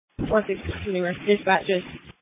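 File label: Police Patrol Dispatch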